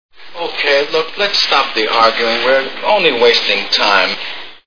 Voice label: arguing; 12 Angry Men